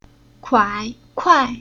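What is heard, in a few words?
"Kuai", Chinese syllable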